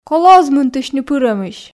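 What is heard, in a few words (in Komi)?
Komi, колӧ оз мынтысьны пырӧмысь, female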